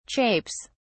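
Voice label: "chapes", British English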